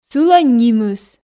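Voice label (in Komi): "сылӧн нимыс", Komi, female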